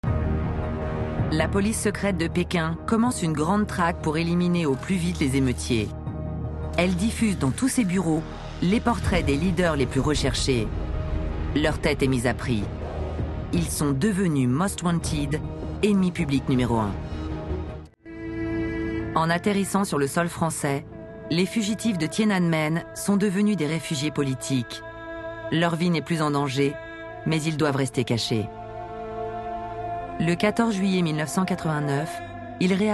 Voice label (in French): Extrait Narration; operation yellow bird; France 3